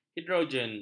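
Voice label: "hidrogen", Malay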